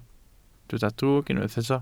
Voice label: "drwg", Welsh